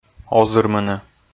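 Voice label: "озырмыны", Komi, male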